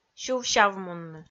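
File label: "сюв-сявмунны", Komi, female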